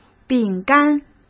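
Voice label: "饼干", Mandarin Chinese; chinese-flashcards; hsk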